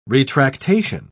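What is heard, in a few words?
"retractation", British English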